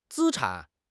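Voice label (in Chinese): "资产", Chinese; HSK; HSK6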